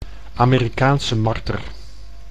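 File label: "Amerikaanse marter", Dutch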